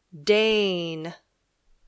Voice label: "Dane", English